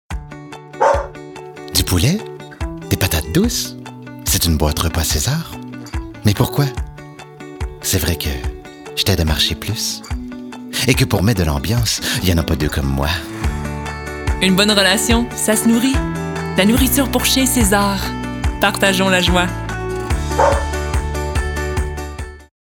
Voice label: Français québécois soutenu, Voix Douce Rassurante Amicale; Nourriture César

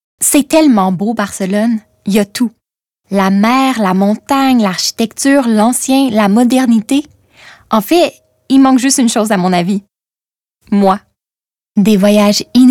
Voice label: Souriante Douce Quebecois naturel; Airjets

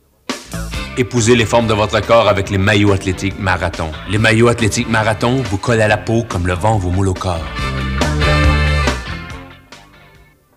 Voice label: pub fictive Affirme Motivant Quebecois soutenu; Maillots Marathon

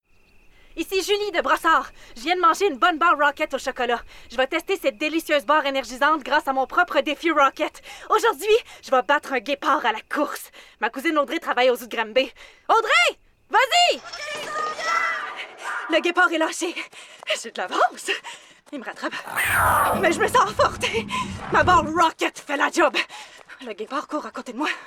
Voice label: PUB, Énergique - Humoristique - Québécois naturel; Barre Cliff

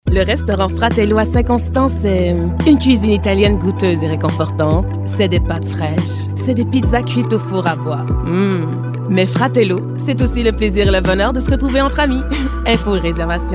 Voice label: Restaurant voix annonceur avec sourire, Charmante - Souriante - Français soutenu; Fratello Restaurant

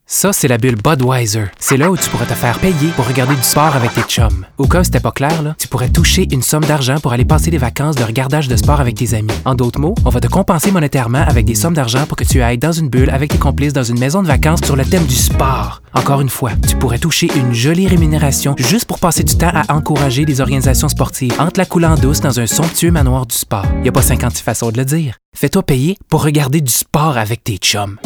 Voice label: Publicite Debit rapide Humoristique Qc naturel; Budweiser

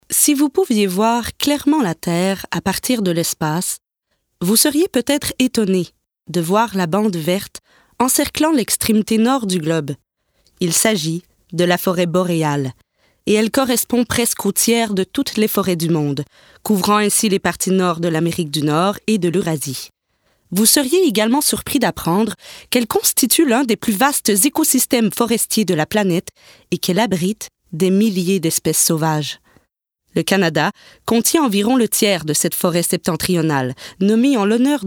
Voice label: Narration Documentaire

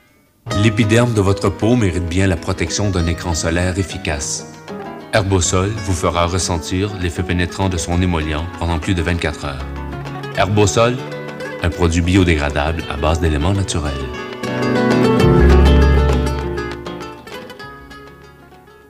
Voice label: Pub fictive Pose Chaleureux quebecois naturel; Herbosol

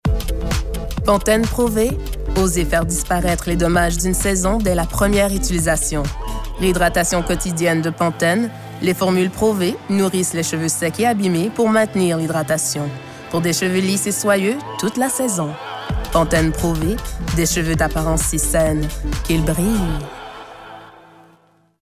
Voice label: voix d'annonceure suave, Séduisante - Complice - Québécois soutenu; Pantène Pro V

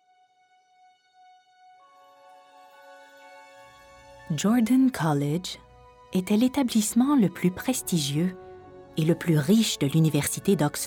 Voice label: Narration fiction, Juste - Captivante - Français normatif; La Boussole d'Or

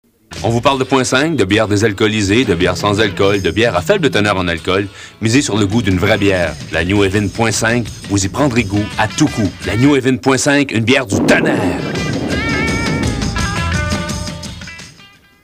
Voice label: pub ficitive Dynamique Convaincant Quebecois soutenu

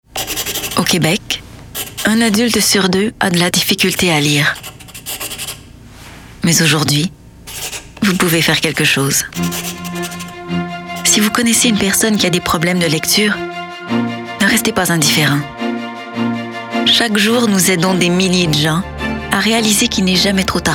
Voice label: Alphabetisation publicité sociétal voix rassurante, Empathique - Motivante - Québécois soutenu

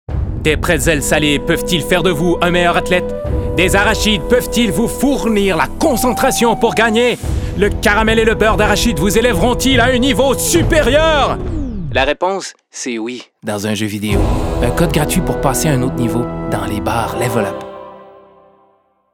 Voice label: Publicite Personnage type sci fi 60s Amusant Qc soutenu; Barre Level Up

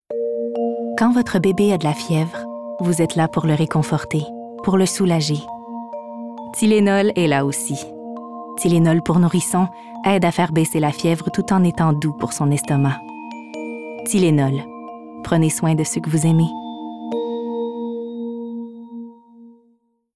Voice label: Pubs Douce Rassurante Réconfortante, Sympathique - Réconfortante - Québécois naturel; Tylenol

